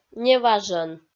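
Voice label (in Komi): "неважӧн", Komi, female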